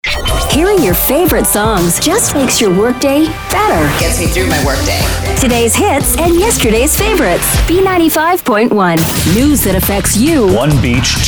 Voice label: imaging